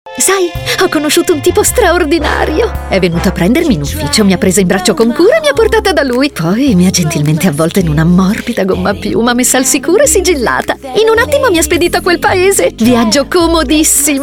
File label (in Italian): recitato allegro; MBE SCATOLA 30